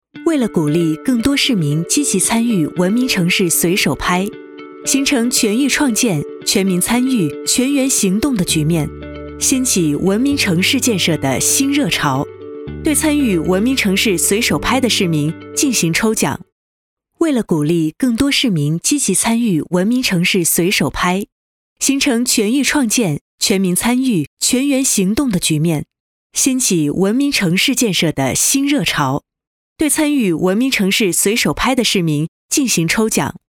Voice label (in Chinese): 女 宣传片 科技 时尚